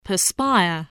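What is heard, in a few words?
"perspire", spoken word, spelling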